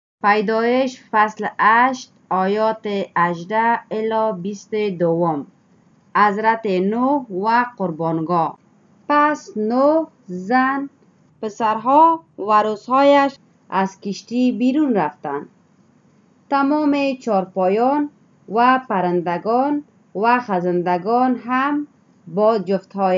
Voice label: dari, drama; dari gnn nt drama; genesis 8 18-22 noah and the altar

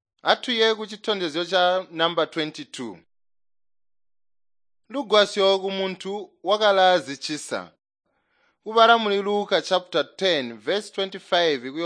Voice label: tonga plateau, drama; chitonga bsz nt drama

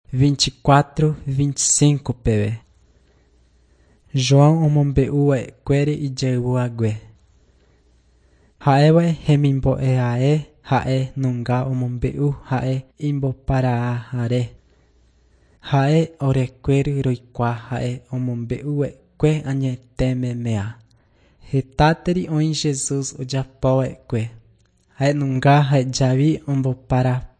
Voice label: john guarani mbya, bible

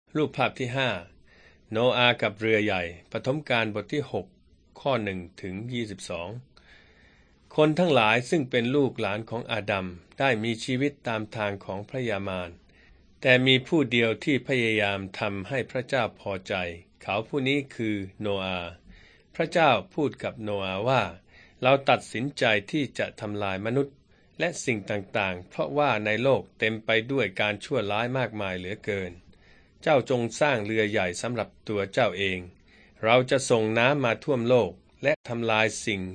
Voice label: beginning with god thai central

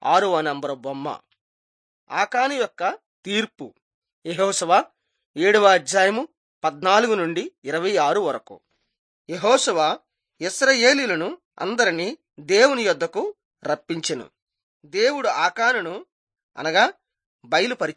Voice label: telugu bangladesh

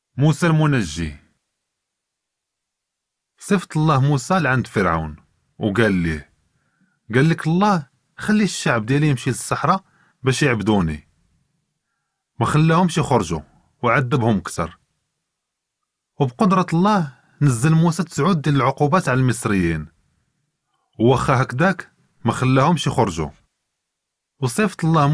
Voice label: moroccan arabic; moses saves one story

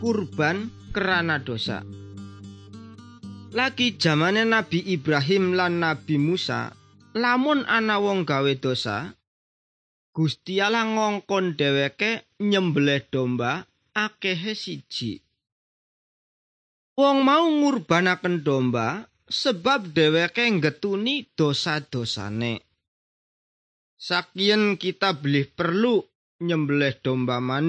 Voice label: good news jawa indramayu, javanese bible

